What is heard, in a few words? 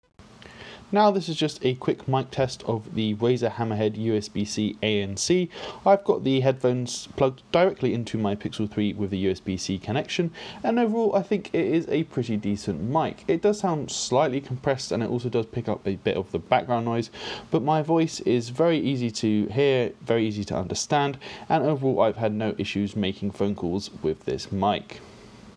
hammerhead mic test